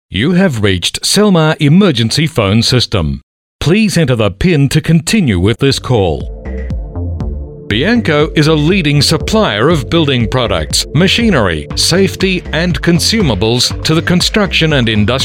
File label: Male Talent